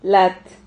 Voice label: "lat", Silabas

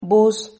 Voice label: "bús", Silabas

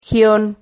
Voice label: "gion", Silabas

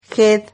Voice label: "ged", Silabas

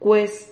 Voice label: "cuez", Silabas

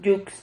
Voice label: "yux", Silabas

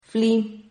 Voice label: "fli", Silabas

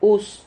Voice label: "hus", Silabas

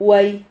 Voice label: "huai", Silabas

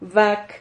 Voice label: "vac", Silabas

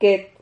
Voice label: "quet", Silabas

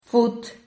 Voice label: "fut", Silabas

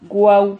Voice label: "guau", Silabas

